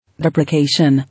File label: "Deprecation", English